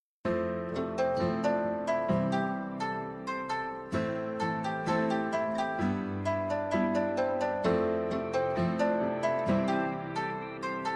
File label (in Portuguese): ROMANTICO F, telemensagem romantica feminina